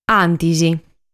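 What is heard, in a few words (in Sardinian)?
"Antis", Sardinian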